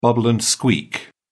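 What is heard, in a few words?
"bubble and squeak", English, British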